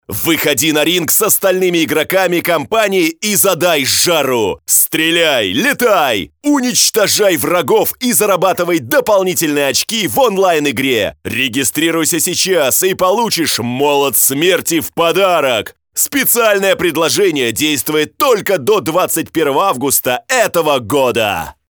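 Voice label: Russian male Rage, vo examples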